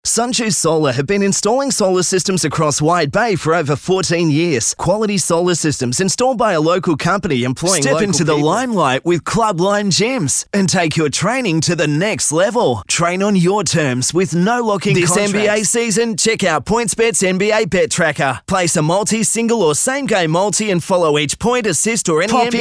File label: Hard Sell